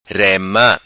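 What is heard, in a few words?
"Rema", patua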